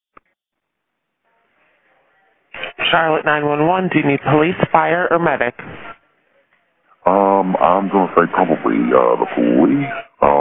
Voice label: 911 call; 9201 University City Bv